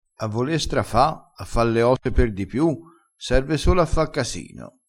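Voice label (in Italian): "fa le ose per di piu", vocabolario dialettale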